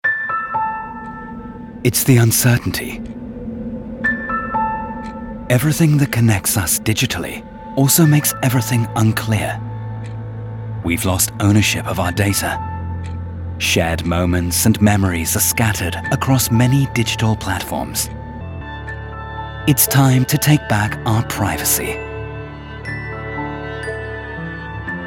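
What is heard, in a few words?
British English, Sanft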